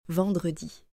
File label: "vendredi", French